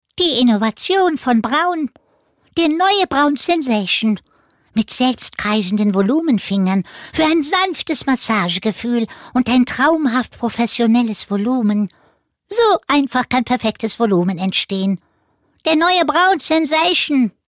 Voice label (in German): Trickstimme